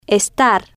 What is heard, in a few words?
"estar", Spanish